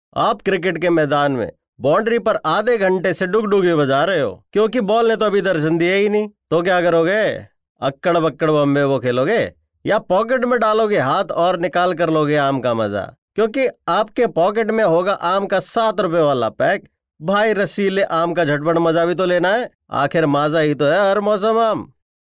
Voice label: hindi, male voice